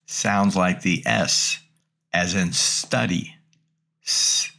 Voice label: Greek Consonants Sigma; greek grammar